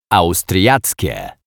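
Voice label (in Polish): "austriackie", Polish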